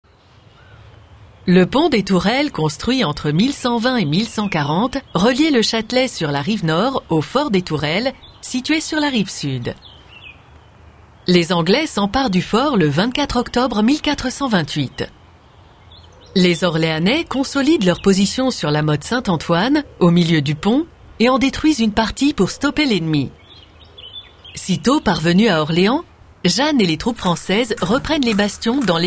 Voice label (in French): Voix off Audioguide; Orléans